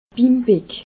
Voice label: "bimbeck", alsace

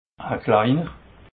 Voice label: "gleiner", alsace

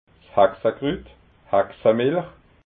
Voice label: "haxakrut", alsace

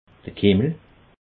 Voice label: "kimmel", alsace

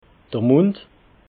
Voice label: "mond", alsace

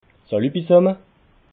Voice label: "salu bisamme", alsace

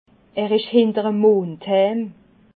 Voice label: "mond", alsace

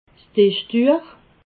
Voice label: "dischduech", alsace

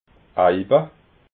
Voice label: "eiba", alsace

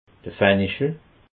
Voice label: "fanichel", alsace

